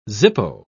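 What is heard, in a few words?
"zippo", British English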